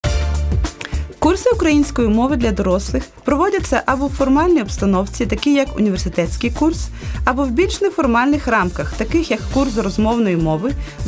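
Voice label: ukrainian female narration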